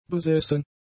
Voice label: "besessen", german; adjectives

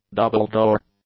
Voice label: "double-door", English; nouns

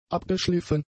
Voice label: "abgeschliffen", German; adjectives

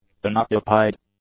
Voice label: "unoccupied", English; adjectives

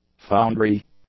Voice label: "foundry", English; nouns

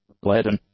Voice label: "leaden", english; adjectives

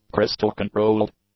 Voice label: "crystal-controlled", English; adjectives

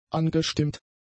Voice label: "angestimmt", German; adjectives

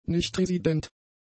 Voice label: "nichtresident", German; adjectives

